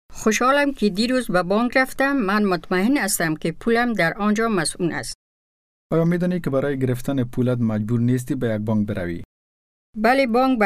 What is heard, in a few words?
banking dari